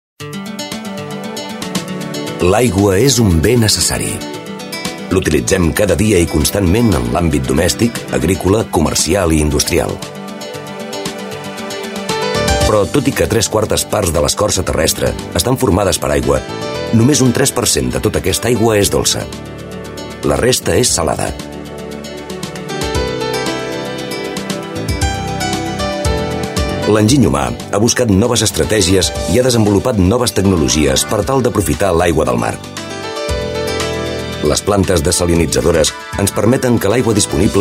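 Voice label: spanish catalan male; dictor-sounds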